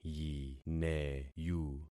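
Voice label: "yineyu", concatenated syllables; Affixing experiment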